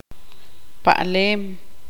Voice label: tzutujil; estar de pie

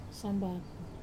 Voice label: "sambaku" tobacco, Wamesa

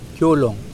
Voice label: "catch tuna", Mokilese

